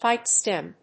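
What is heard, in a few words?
"pipe stem", English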